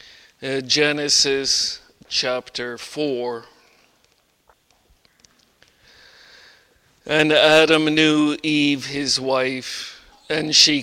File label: Bible Reading